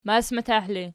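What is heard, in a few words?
"masmatah" ergative, Archi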